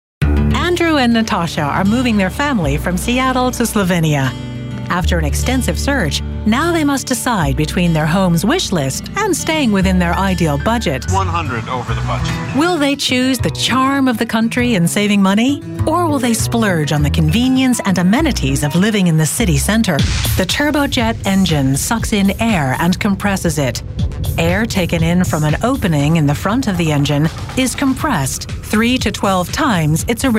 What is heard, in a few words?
narration, Strong Reassuring Female Voice